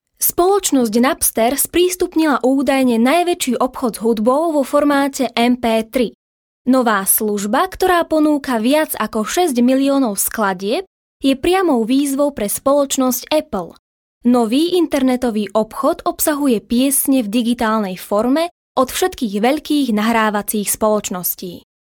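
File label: Slovak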